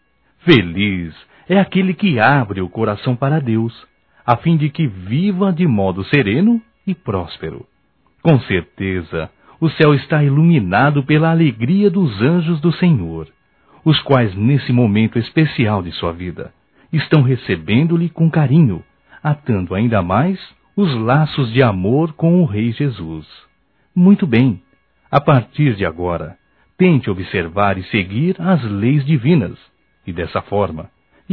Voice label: Primeira Comunhão Masculino, Só Voz; Telemensagens; Telemensagens 2017

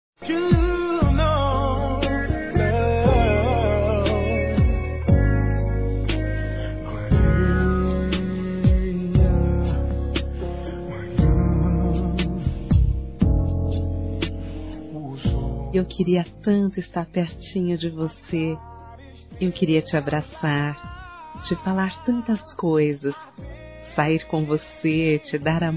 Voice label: AH QUE SAUDADE DISTANTE F; Telemensagens; Saudades

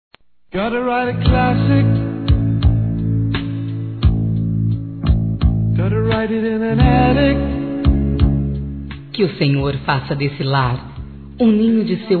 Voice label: Casamento Feminino

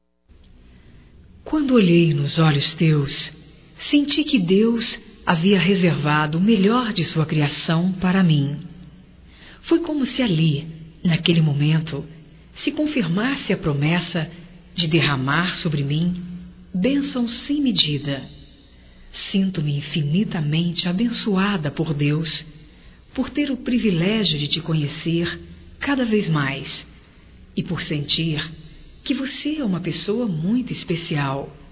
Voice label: ROMANTICA EVANGELICA, fem; Românticas

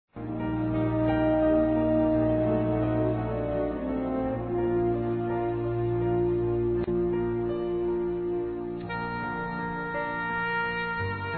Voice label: GERAL RELIGIOSA F, Telemensagens Dia das Mães Fem; Telemensagens 2017

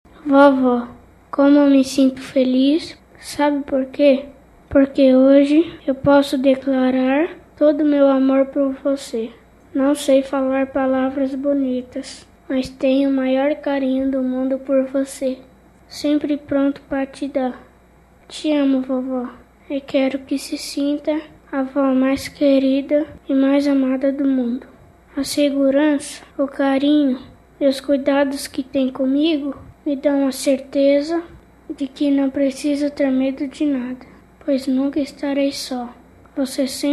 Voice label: INFANTIL M; DIA DA AVÓ; Telemensagens 2017; Datas Mistas

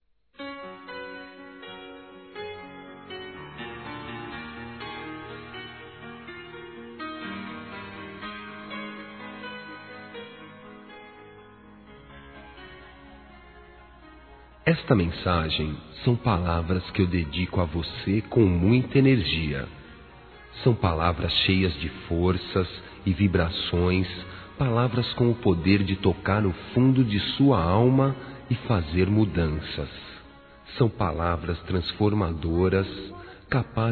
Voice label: OTIMISMO M; Telemensagens; masc

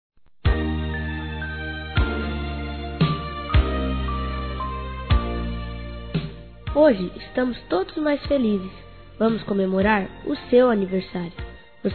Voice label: AVÓ INFANTIL M; Aniversários; Aniv Familiar

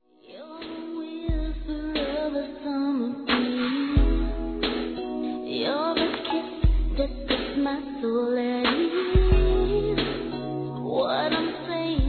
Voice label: IRMÃO M; Desculpas; Telemensagens 2017

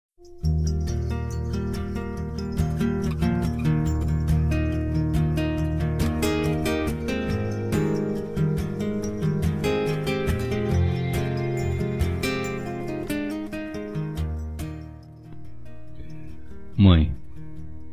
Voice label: dia as maes geral m, Masc; Dia das Mães; Telemensagens 2017

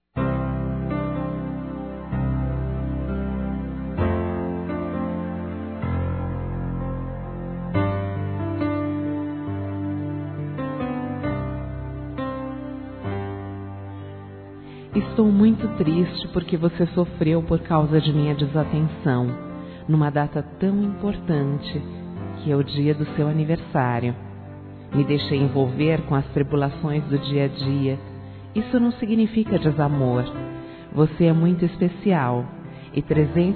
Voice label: fem; Telemensagens 2017; PELO SEU ANIV.

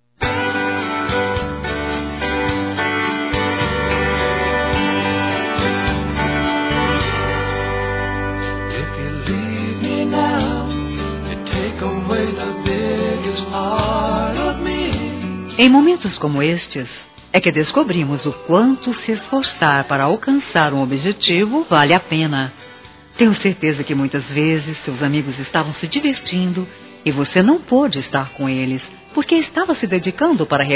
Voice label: Formatura Geral Feminino; Telemensagens; Formaturas; Telemensagens 2017

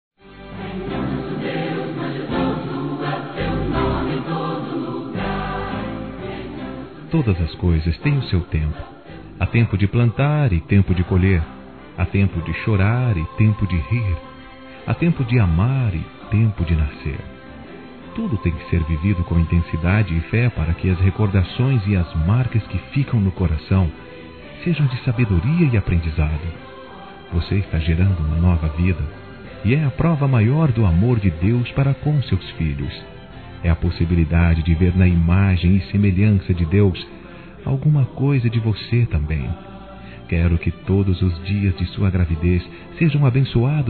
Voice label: GESTANTE M, Telemensagens Gospel masc

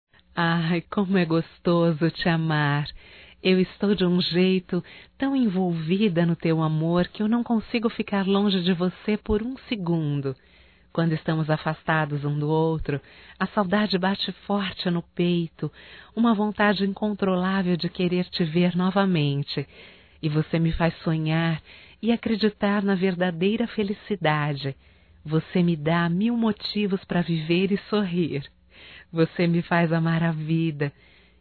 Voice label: SAUDADES ROMâNTICA (F); Românticas

